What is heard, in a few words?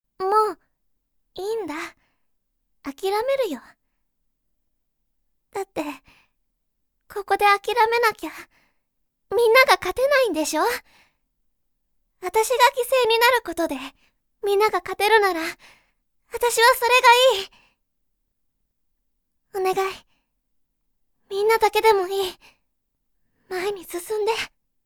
girl giseini